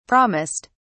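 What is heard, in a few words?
"promised", British English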